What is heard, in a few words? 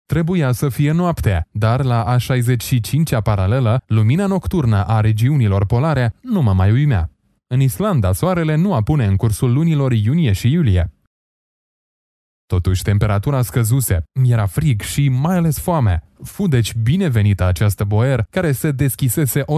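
romanian male narration; voice demos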